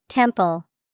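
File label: "temple", English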